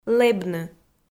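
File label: "лэбны" Komi, female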